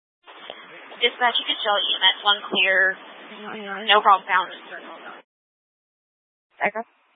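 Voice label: Incident Tactical 3, Scanner audio recording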